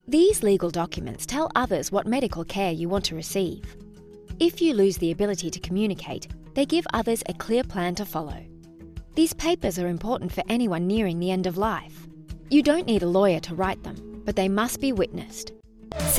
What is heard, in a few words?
australian corporate; Naturalistic, deeper voice. Slightly gravelly.